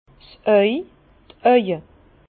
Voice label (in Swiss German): "auw", Bas Rhin; vin; l’œil les yeux